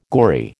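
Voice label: "gory", American English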